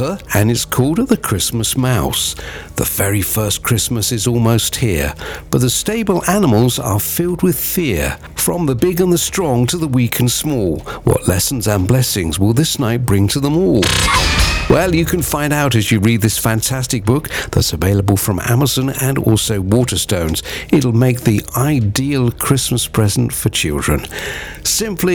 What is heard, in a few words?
Radio Commercial; The Christmas Mouse